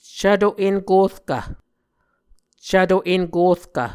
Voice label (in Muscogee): "cvto enkorkv", Muscogee